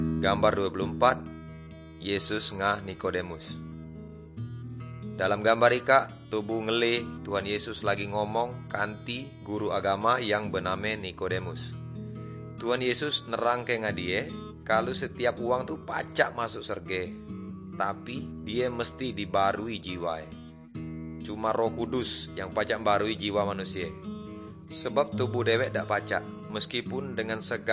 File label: Good News Melayu Tanjung Raja; Malay Christian Resources and Media